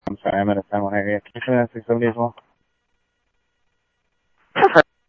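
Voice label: Sheriff East County, scanner audio trunk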